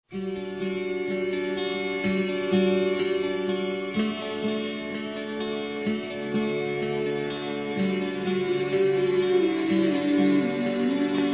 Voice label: PAQUERA F; Telemensagens; Conquistas Cantadas paqueras; fem; Telemensagens 2017

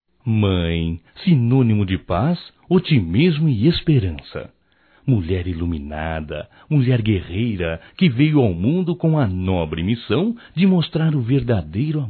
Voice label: Dia das Mães Homenagem Especial Masculino; Telemensagens 2017

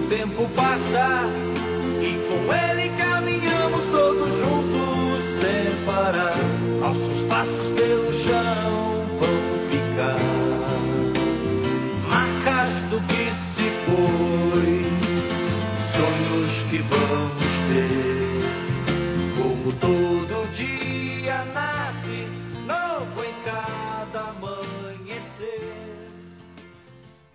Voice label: Ano Novo Filho Feminino; Telemensagens; Natal e Ano novo

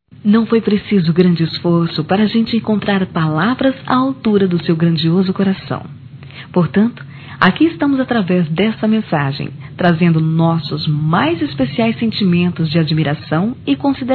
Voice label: Mães plural f, Telemensagens; Dia das Maes; Telemensagens 2017

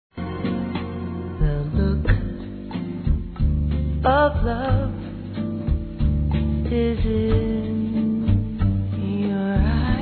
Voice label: FILHA ADOTIVA, fem; Telemensagens 2017

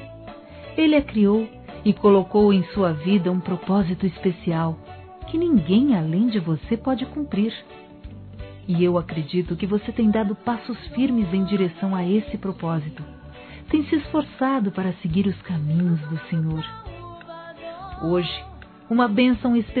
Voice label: SOBRINHA F; Aniversários; ANIV. Evangelico; Fem

